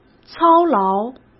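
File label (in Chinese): "操劳", Mandarin Chinese; chinese-flashcards; hsk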